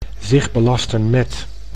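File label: "zich belasten met", Dutch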